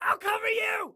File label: Self Task Cover, Excited Guy